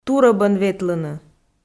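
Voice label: "турӧбӧн ветлыны", Komi, female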